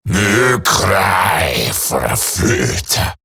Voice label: Patrol Eximus Grineer Male; Battle Barks